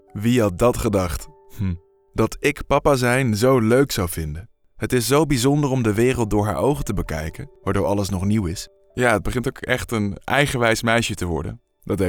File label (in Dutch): Commercial Dutch